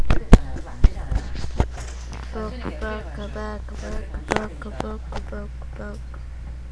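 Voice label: "book", spoken word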